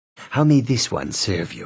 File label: male serve you; khajiit